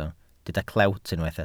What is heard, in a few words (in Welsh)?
"clewt" Welsh